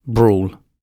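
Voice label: "brawl", British English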